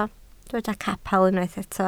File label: "capel", Welsh